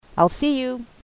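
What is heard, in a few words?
I'll See You, Dutch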